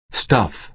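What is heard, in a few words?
"stuff", American English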